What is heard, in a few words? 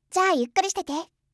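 "jaa yukkurishitete", Japanese; kiku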